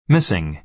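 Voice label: "missing", American English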